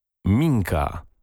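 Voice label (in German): "Minka", polnische Mädchennamen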